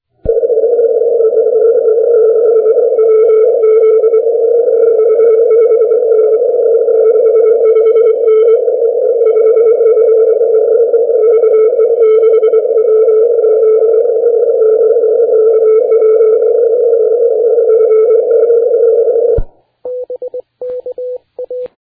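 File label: 80m short path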